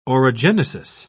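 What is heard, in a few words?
"orogenesis", British English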